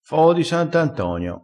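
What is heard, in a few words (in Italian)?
"foo di sant antonio", vocabolario dialettale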